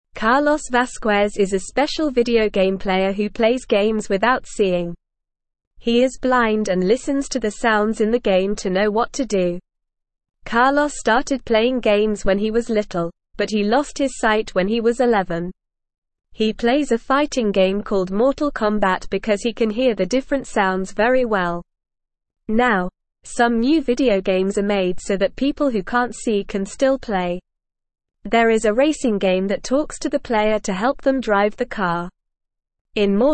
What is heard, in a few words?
English Lower Intermediate NORMAL Reading; English Newsroom